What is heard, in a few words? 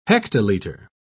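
"hectolitre", British English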